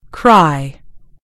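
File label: "cry", British English; gcompris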